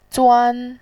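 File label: "zuan" Mandarin Chinese, first tone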